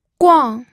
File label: "guang4", pinyin audio